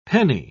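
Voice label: "penni", English, British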